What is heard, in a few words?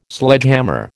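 "Sledgehammer", American English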